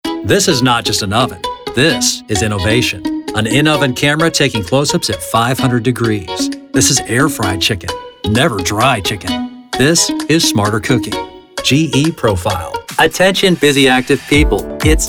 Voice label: vo commercial

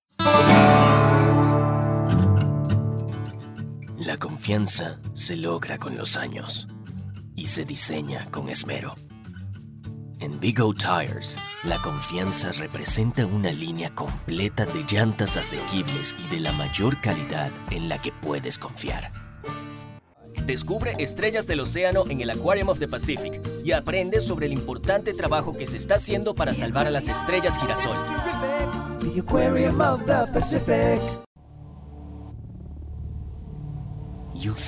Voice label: Spanish-English Voice

